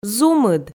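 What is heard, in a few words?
"зумыд", Komi, female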